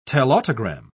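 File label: "telautogram", British English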